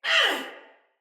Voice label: Death Finisher, Soldier Female; Battle; Barks; Dialog; Sinecure Soldier Female A

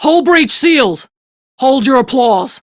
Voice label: Crew Fix Breach, Female; Dialog; en; Warframe; Railjack Crew; Steel Meridian

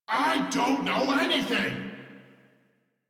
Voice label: Downed, en; Warframe; Corpus VIP B

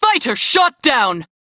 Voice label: Crew Gun Kill Fighter, Female; Dialog; en; Warframe; Railjack Crew; New Loka

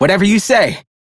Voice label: Crew Follow, Male; Warframe; Railjack; Perrin Sequence

